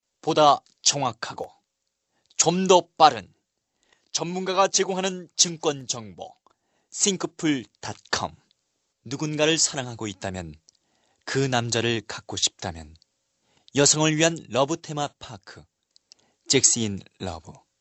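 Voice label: Korean, male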